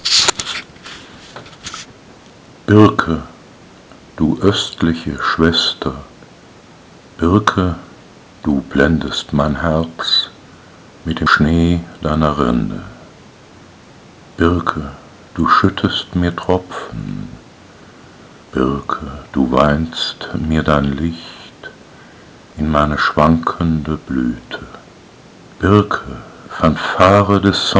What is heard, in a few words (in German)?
Die weibliche Stimme